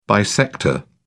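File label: "bisector", British English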